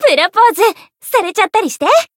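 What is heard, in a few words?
Voice growth, Japanese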